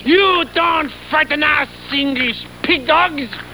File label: english